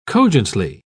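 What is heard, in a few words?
"cogently", British English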